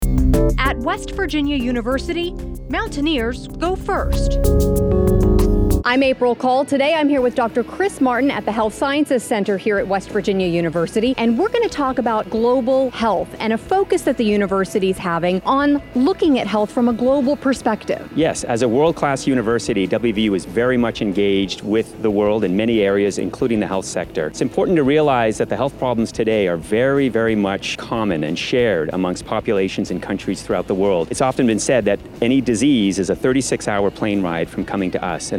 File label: radio spot; global health summit